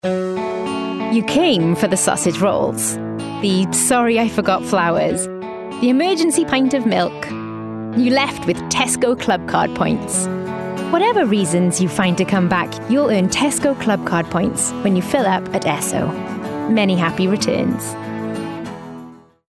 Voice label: COMMERCIAL

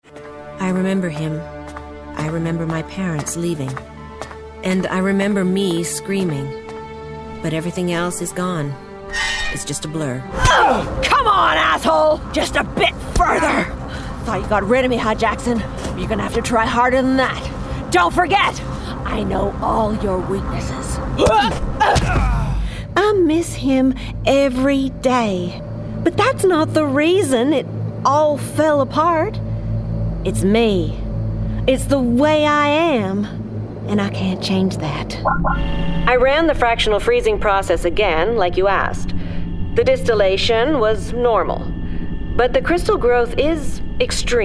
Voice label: Gaming Character; Gaming/Character Showreel

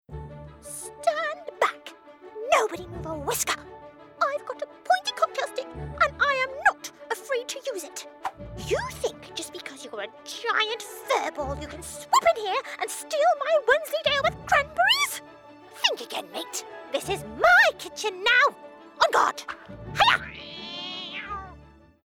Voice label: Animation Brave Mouse

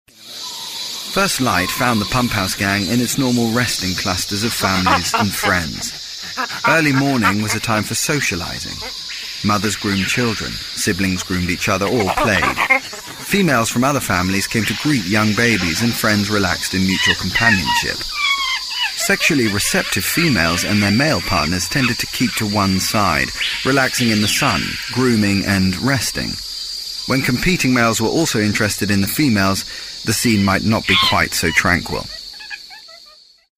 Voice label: Narration Doco; Monkey

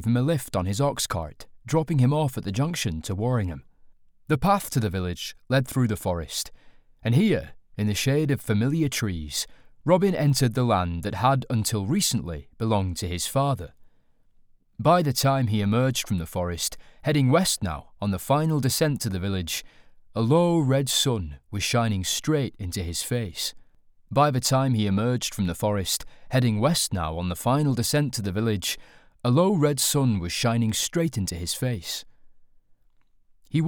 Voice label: Accent Scottish Northern Welsh London; Accents -Scots/Northern/Welsh/London

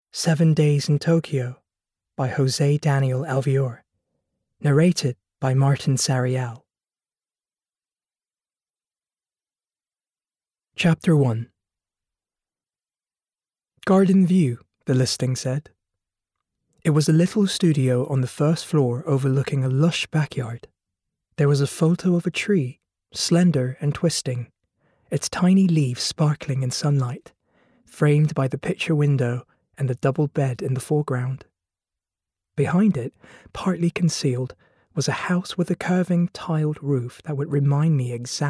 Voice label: Audiobook; SevenDaysInTokyo

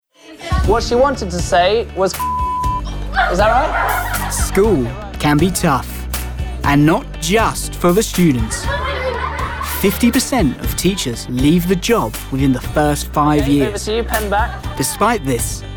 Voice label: narrative

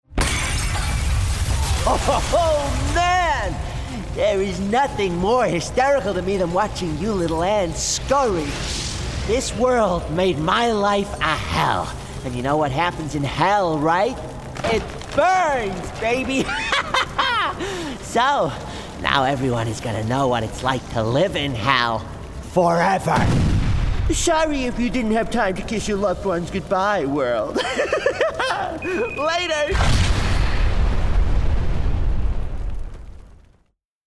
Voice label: Character Villain Young Crazy US

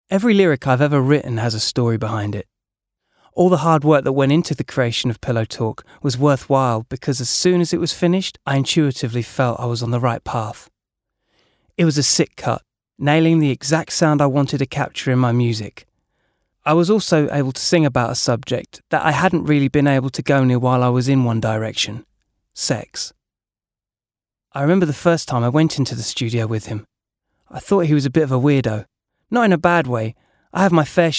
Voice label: Audiobook

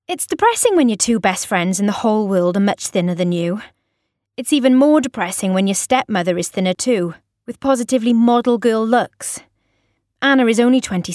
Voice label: AUDIOBOOK

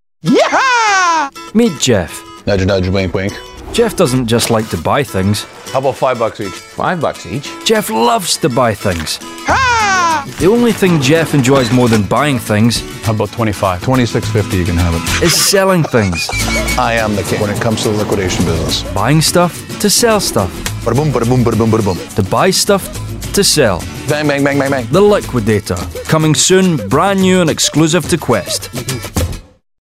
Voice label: Promo; Liquidator

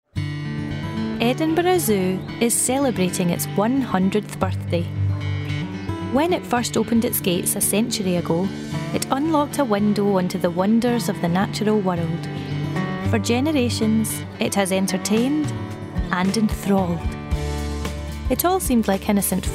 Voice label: Narrative; Edinburgh Zoo

